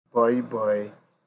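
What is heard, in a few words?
"boy boy", Nigerian Pidgin, male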